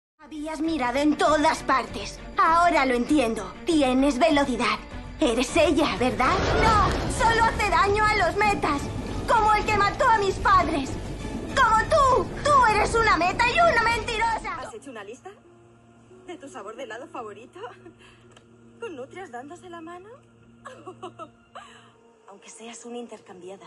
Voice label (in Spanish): Demo doblaje